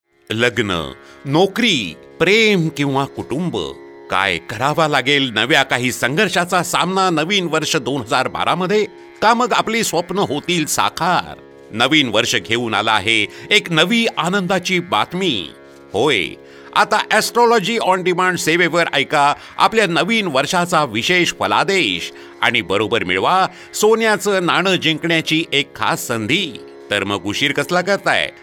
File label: MARATHII M